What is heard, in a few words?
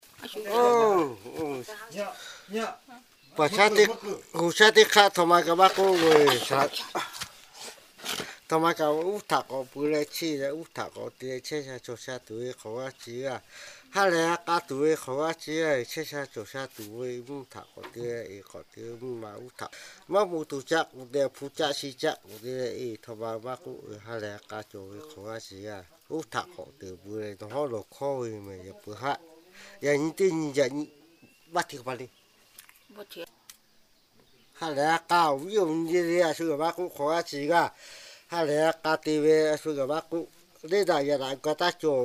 Lahu